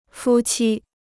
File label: "夫妻", Chinese